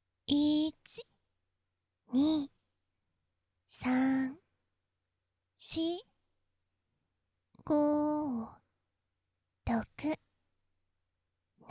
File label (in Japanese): 筋トレカウント(優しい)